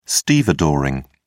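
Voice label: "stevedoring", British English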